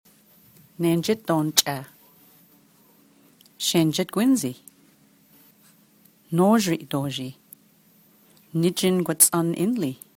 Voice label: Gwichin